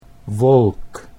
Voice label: "wolk", Dutch